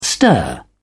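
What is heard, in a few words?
"stir", English, British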